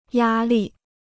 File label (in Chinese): "压力", Chinese; yā lì; pressure